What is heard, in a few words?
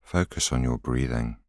voiceover